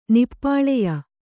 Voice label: "ನಿಪ್ಪಾಳೆಯ", Kannada

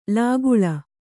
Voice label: "ಲಕ್ಕಲಿ", Kannada

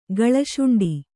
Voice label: "ಗಳಶುಂಡಿ", Kannada

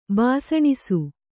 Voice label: "ಬಾಸಣಿಸು", Kannada

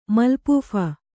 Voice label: "ಮಲ್ಪೂಫ", Kannada; malpūpha; noun

